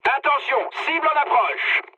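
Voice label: near waypoint, mood medium, French; War Thunder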